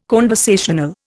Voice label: "conversational", Indian

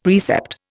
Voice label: "precept", Indian; Dictionary

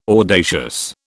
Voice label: "audacious", British

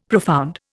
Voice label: "profound", Indian; Dictionary

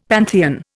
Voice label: "pantheon", Indian; Dictionary

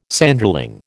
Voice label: "Sanderling", American

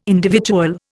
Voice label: "individual", Indian

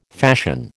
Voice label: "fashion", American

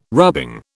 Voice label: "rubbing", British

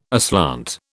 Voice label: "aslant", British

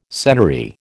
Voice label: "senary", American

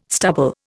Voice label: "stubble", Indian

